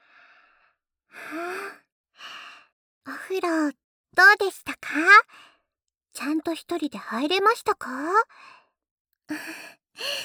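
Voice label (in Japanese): 【くちゅ音無し】大好きだから……こんなこともしてあげまちゅねー♪, ASMR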